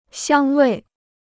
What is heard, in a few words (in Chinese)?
"香味", Chinese